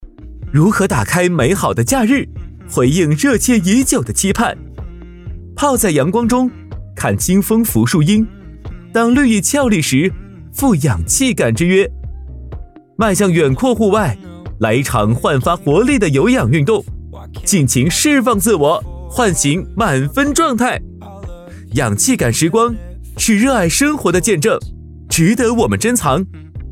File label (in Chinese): 男 年轻活力广告; VIVO-X90系列